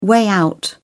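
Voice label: "way out", British English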